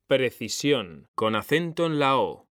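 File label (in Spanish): "PRECISIÓN", Spanish; mekanta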